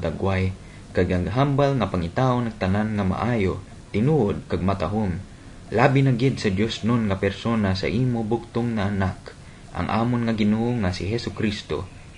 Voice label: internet prayer hiligaynon